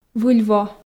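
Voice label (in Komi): "выль во", Komi, female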